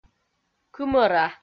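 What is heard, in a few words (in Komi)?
"кымӧра", Komi, female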